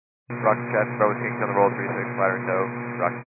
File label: 122 725MHz, scanner radio